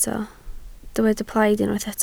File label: "plaid", Welsh